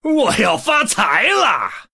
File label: Male Human Greetings, zh